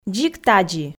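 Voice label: "дзик тадзи", Komi, female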